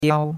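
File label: "diao1", Chinese; Cours-de-Chinois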